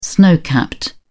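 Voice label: "snow capped", British English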